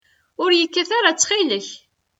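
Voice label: "Ur yi-kkat ara ttxil-k.", Kabyle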